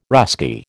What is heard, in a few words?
"Russki", American